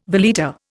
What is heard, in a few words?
"valeta", Indian